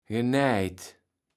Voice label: "genaejt", Kaevels Platt

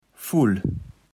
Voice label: "full", Kaevels Platt

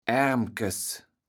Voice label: "Aermkes", platt

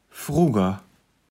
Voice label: "frugger", Kaevels Platt

